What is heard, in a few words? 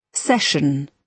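"session", British English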